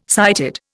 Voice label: "sighted", Indian